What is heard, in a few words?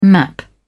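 "map", English, British English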